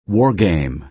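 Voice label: "war game", American English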